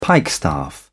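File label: "pikestaff", British English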